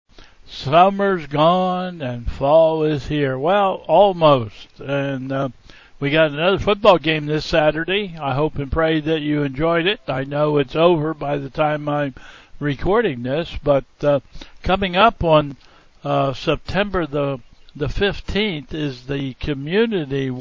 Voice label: Welcome and Announcements

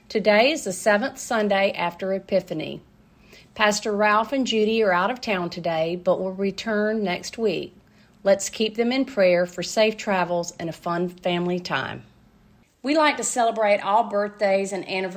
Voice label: Welcome and Announcements

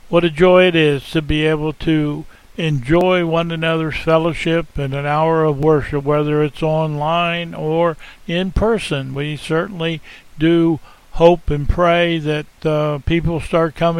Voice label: Welcome and Announcements

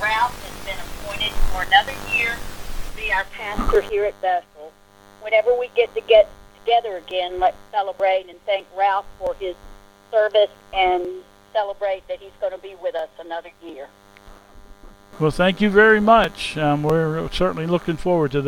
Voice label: Announcement

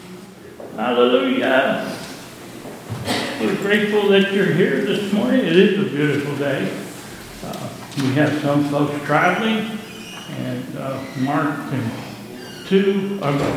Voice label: Welcome and Announcements, church service

